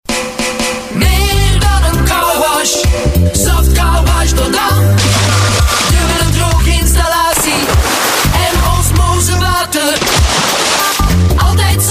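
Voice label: spot Car Wash NL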